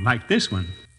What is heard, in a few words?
like this one, male